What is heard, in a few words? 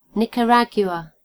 "nicaragua", en GB; gcompris